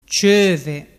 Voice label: "ciove", dialettu